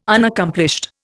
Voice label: "Unaccomplished", Indian